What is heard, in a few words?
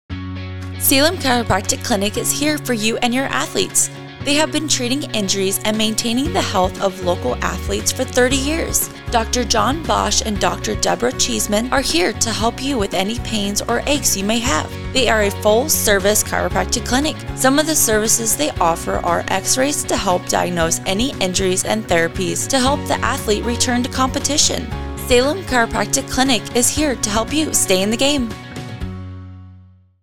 Commercial; Salem Chrio